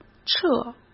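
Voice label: "撤", Mandarin Chinese; hsk; chinese-flashcards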